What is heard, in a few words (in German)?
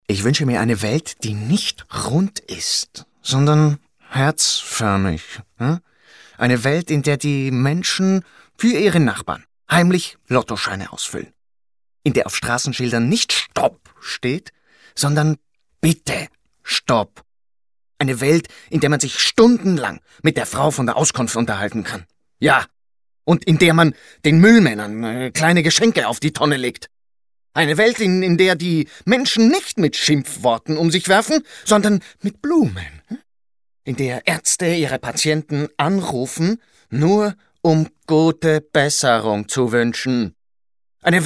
Neutral agressiv